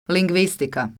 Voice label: "lingvistika", croatian

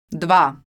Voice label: "dva", Croatian

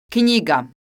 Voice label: "knjiga", croatian

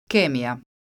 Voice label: "kemija", croatian

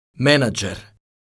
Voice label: "menadzer", Croatian

